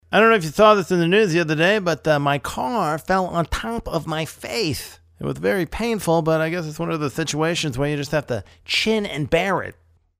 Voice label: Impression; Jay Leno